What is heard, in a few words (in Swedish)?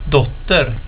"dotter", swedish